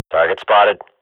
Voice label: radio bot target, swat; Global Offensive; player vo

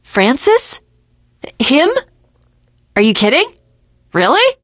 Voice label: finale chat, teengirl, voice; Left 4 Dead 2

